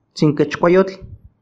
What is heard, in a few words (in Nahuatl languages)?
"tsinkechkuayotl", Náhuatl; cintura; waist